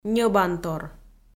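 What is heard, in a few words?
"ньӧбантор", Komi, female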